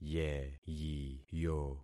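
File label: "yeyiyo", concatenated syllables; Affixing experiment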